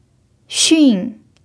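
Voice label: "xùn", Mandarin Chinese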